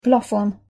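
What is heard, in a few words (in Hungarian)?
"plafon" Hungarian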